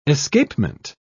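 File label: "escapement", British English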